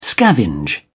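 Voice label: "scavenge", British English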